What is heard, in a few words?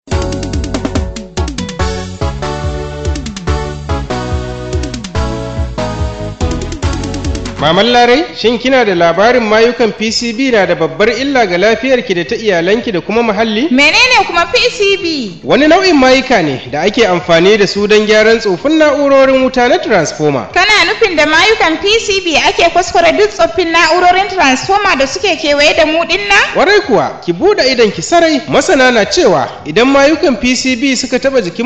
Radio jingle in Hausa language